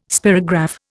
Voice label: "spirograph", Indian